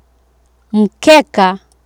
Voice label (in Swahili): "mkeka" Swahili